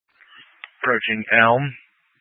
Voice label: Tactical Incidents, scanner

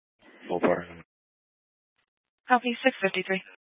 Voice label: Fire Department Dispatch, scanner

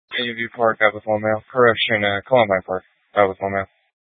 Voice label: Police Dispatch, scanner

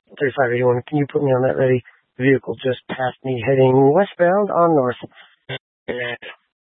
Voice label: Police Dispatch; Police 1 Dispatch

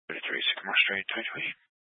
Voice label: Mesa County Sheriff Dispatch

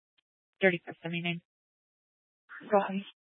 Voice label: Police Dispatch

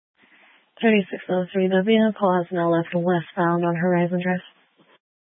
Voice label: Police 1 Dispatch

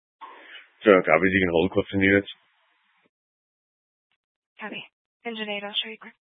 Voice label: Fire Dispatch

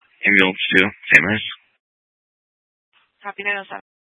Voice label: Fire Dispatch

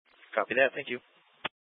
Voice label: Tactical Incidents, scanner

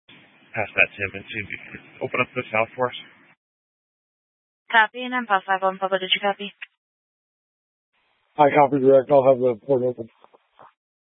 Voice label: Dispatch, Scanner audio recording

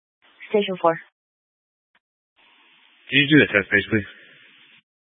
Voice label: Fire Dispatch, scanner

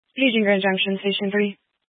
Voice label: Fire Dispatch, scanner

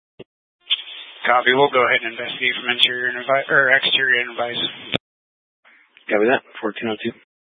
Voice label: Fire Dispatch, scanner

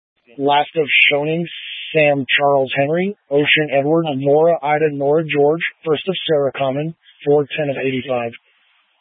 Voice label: Incident Tac 3, scanner

